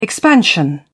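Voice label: "expansion", British English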